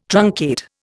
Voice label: "truncate", Indian

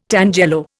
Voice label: "tangelo", Indian

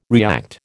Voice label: "react", American

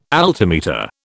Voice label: "altimeter", British

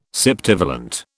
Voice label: "septivalent", British

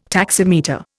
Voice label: "taximeter", Indian

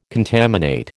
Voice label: "contaminate", American

